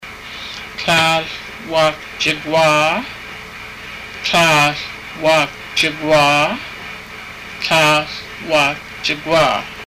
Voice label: seventeen, haida; Tláahl wáak jagwaa